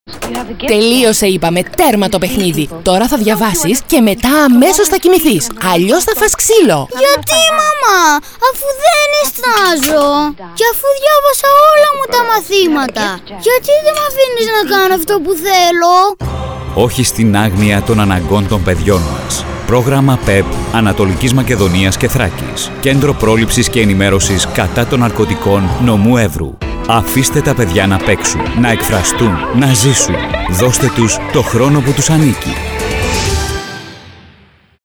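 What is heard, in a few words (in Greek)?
γυναίκα άνδρας, Ραδιοφωνικά spot; Ραδιοφωνικό Spot Νο3; ΚΕΝΤΡΟΥ ΠΡΟΛΗΨΗΣ & ΕΝΗΜΕΡΩΣΗΣ κατά των Ναρκωτικών; dimotiko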